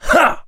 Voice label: melee swing, mechanic, survivor, voice

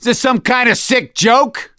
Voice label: reaction negative, voice; gambler

